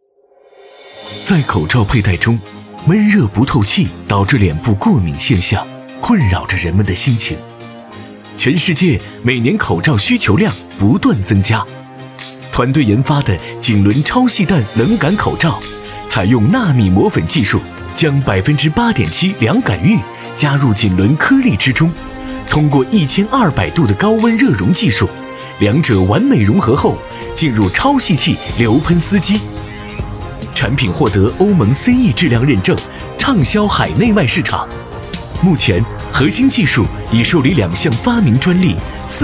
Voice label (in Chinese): 男 宣传片 口罩宣传; 南通职业大学